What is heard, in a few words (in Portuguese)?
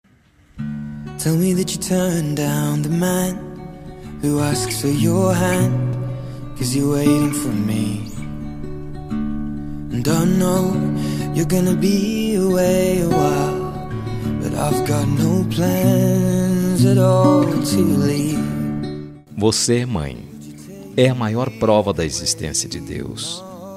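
birthday MAE male, telemensagem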